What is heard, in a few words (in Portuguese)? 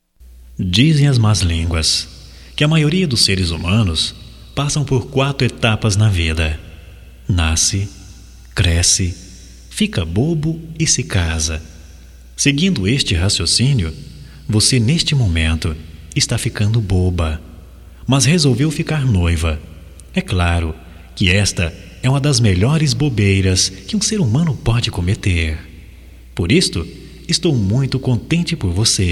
PARAB PELO NOIVADO PARA ELA M, Só Voz, masc; Telemensagens; Telemensagens 2017